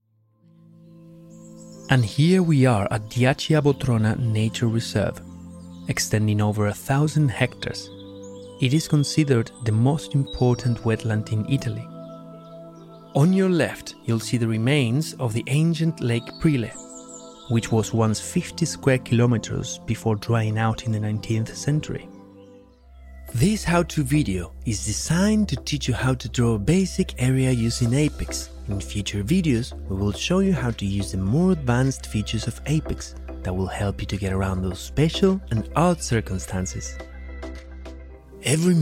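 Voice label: Latin American Spanish, Accented English; GoLocalise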